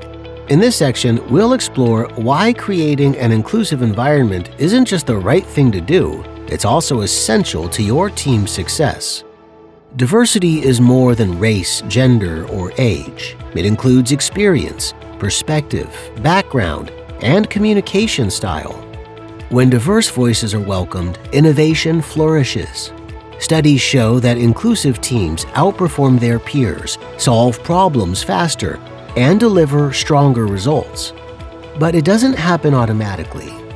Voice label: Elearning Module